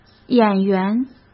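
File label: "演员", Mandarin Chinese; chinese-flashcards; hsk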